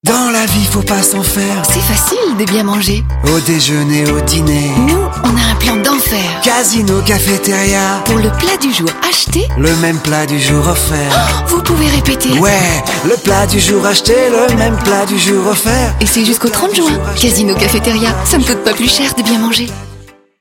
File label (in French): radio enthousiaste; casino cafeteria